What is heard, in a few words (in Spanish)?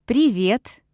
"hola", informal